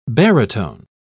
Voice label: "baritone", pronounce